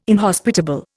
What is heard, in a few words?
"inhospitable", Indian